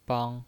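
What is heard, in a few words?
"bang", Mandarin Chinese, first tone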